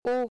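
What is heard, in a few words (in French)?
"o", français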